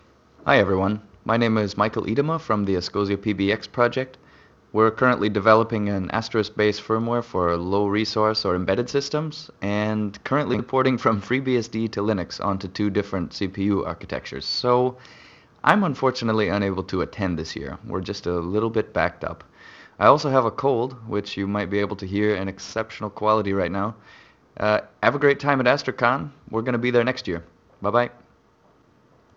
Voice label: greeting in G.722; ENG